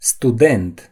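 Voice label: "student", Romanian; gcompris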